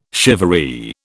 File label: "shivaree", British